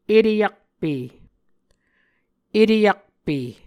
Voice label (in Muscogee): "eteyakpe", Muscogee